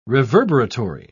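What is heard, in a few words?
"reverberatory", British English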